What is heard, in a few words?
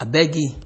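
"abegi", Nigerian Pidgin, male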